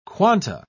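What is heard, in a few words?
"quanta", English, British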